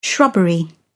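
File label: "shrubbery", British English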